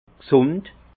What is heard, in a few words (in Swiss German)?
"gsund", Alsace; vin; sain